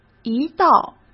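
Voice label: "一道", Mandarin Chinese; chinese flashcards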